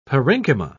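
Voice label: "parenchyma", British English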